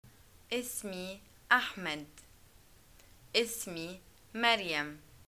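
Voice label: my name is, arabic, Egyptian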